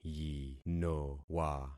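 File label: "yinowa", concatenated syllables; Affixing experiment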